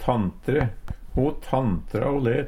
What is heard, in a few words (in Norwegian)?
tanntre, Numedalsmål; Verb; Ho tanntra o let.